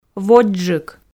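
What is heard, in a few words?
"водзджык", Komi, female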